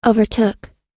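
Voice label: "overtook", English pronunciation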